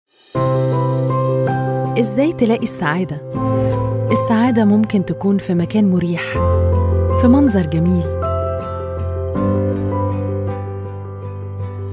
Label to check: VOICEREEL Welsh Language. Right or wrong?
Wrong